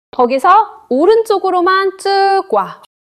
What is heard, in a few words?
neutral, monologue